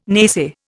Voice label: "naysay", Indian

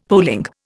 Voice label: "polling", Indian; Dictionary

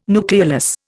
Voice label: "nucleolus", Indian

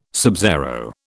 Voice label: "subzero", British

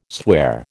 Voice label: "sware", American

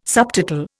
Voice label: "subtotal", Indian

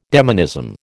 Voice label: "demonism", American

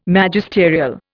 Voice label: "Magisterial", Indian

